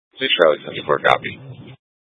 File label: Dispatch, scanner; Mesa PD Dpt; 4 Sprstn Dispatch